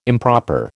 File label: "improper", American